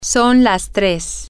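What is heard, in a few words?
Spanish, three o'clock, female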